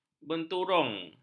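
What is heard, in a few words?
"benturung", Malay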